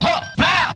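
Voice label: "huh bow", vocal sample; ooh ahh; heh huh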